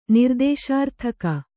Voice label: "ನಿರ್ದೇಶಾರ್ಥಕ", Kannada